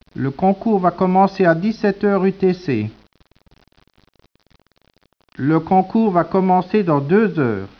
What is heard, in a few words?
speech, French